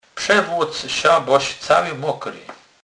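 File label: "przewlec", Polish, Kurpie dialect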